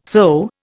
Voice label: "sew", Indian

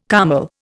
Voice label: "kÁmmel", Indian

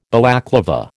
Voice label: "Balaclava", American

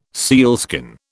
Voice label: "sealskin", British

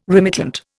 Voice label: "remittent", Indian

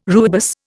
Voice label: "rooibos", Indian

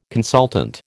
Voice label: "consultant", American

